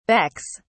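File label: "becks", British English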